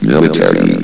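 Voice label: "military", male synthesized announcer voice; blizzard; hlvox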